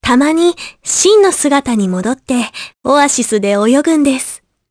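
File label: vox dia Japanese; Laias; kingsraid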